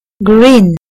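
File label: "green", British English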